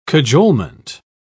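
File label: "cajolement", English, British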